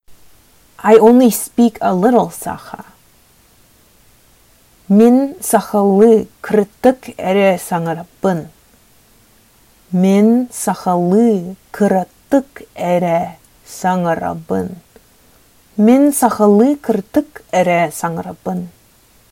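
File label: Sakha I only speak a little Sakha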